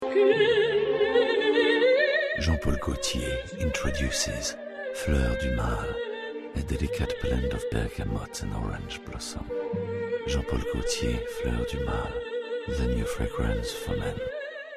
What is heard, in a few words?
spot TV en Anglais; Jean Paul Gaultier Fleur Du Male